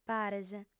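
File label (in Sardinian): "pares", female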